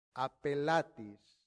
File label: "appellatis", latin; santillana